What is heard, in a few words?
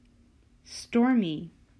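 "Stormy", English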